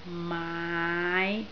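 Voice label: "maai5", Cantonese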